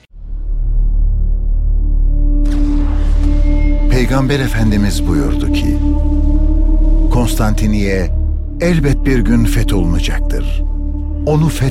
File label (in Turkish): belgesel tarihi, seslendirme